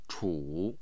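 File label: "chu", Mandarin Chinese, tone 3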